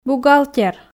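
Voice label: "бухгалтер", Komi, female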